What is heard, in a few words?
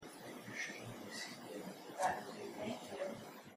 unexplainable man's voice I'll show you; Theater 1; recorder by pandoras box